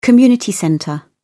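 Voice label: "community centre", British English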